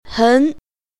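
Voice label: "hen2", Mandarin Chinese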